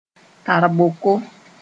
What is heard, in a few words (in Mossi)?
"tarboko", moore